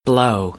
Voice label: "blow", American English; oʊ - əʊ